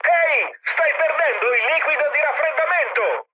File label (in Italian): damaged water, mood high, speech it; War Thunder